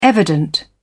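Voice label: "evident", British English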